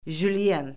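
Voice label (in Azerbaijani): "jülyen", Azerbaijani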